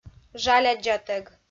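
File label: "жаляддзатӧг", Komi, female; audio dict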